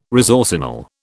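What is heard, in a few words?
"resorcinol", British English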